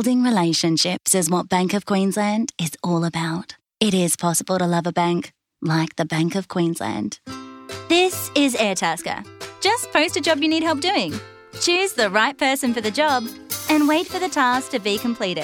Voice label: Commercial AUS